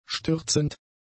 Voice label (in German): "stürzend", German; adjectives